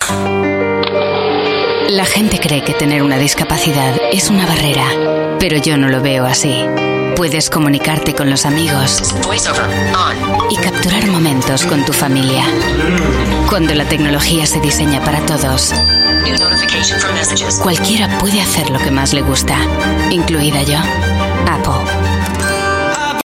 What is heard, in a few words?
European Spanish; Apple